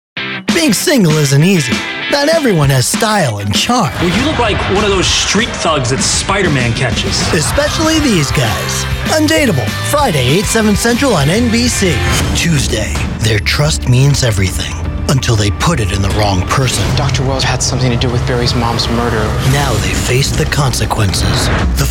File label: Promos